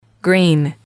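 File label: "green", English, female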